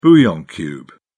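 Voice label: "bouillon cube", British English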